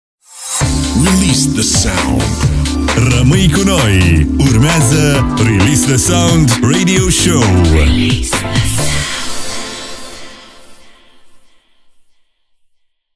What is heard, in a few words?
Generic Announcer; RTS